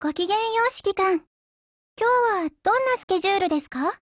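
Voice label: HELLO Japanese, Voice; GF Alarm; Ak5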